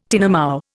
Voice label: "tinamou", Indian